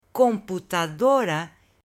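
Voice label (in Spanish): "COMPUTADORA", Spanish, female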